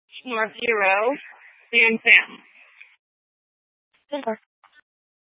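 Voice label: Tempe police department, scanner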